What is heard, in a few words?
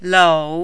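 "lou", Mandarin, third tone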